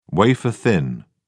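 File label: "wafer-thin", British English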